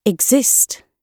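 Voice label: "exist", British English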